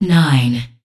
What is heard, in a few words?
Voices Announcer Status; unreal development kit